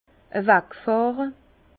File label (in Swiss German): "awagfahre", Bas Rhin; vin; décaivallionner